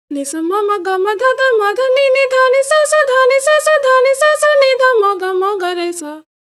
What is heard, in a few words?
voice, pitchshift; izotope radius